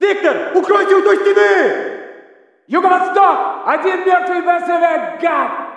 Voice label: walla rus mountain chatter